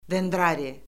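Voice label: "dendrari", Azerbaijani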